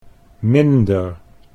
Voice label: "minder", Dutch